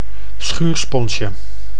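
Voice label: "schuursponsje", Dutch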